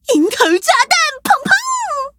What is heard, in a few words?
upgrade, zh-hant